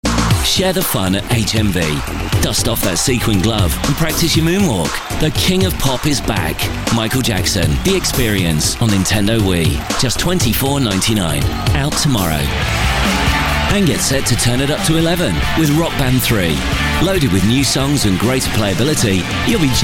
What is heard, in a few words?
ad national radio spot; HMV